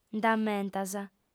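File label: "nd'ammentas", Sardinian, female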